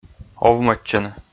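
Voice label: "овмӧдчыны", Komi, male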